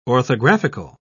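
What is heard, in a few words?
"orthographical", British English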